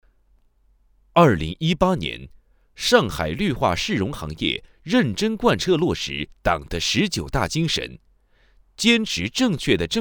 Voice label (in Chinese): 专题片, 总结汇报; 上海绿化市容